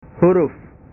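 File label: "huruf", Indonesian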